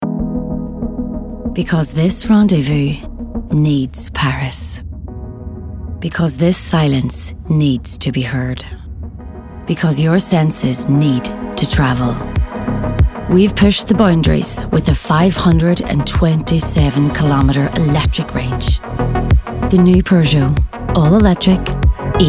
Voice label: Ad; Peugeot